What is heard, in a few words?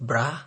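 "brah", Nigerian Pidgin, male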